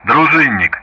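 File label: Speech Sound Russian; Common Player Callsign Druzhinnik; DCS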